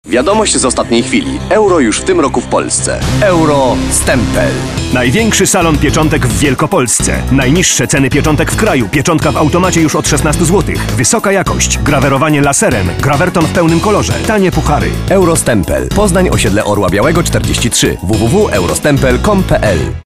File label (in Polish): reklama radiowa